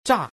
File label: bomb, woman; zhuandan; guanDan